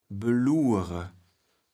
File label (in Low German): "belure", kaevels platt